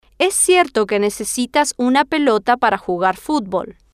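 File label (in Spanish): es cierto que necesitas una pelota para jugar futbol, Spanish, female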